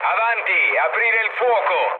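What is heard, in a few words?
Italian attack position mood low, speech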